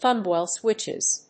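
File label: "Thumbwheel Switches", English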